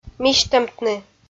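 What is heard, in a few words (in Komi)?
"мисьтӧмтны", Komi, female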